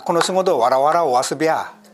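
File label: warawara, Minamiyamagata dialect Japanese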